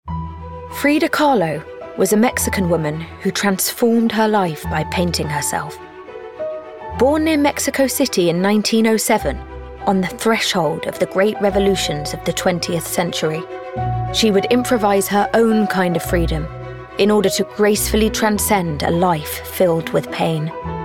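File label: documentary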